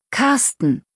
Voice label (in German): "Carsten", Jungennamen, deutsch